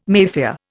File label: "Mafia", Indian